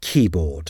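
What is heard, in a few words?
"keyboard", English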